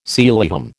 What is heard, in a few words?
"Sealyham", American